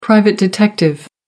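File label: "private investigator", British English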